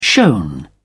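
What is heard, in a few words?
"shown", British English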